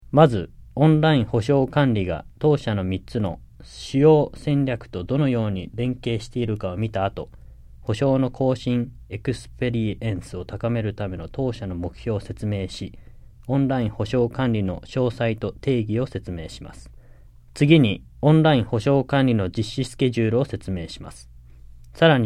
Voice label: JAPANESE; delltranslations